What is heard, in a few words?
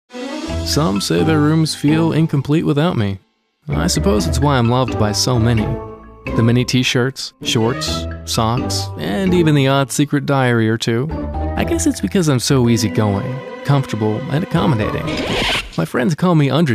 EngUS Cool; Fremdtake